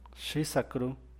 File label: "Shi sacru", San Mateo Macuilxochitl Zapotec; (Spanish) Que buena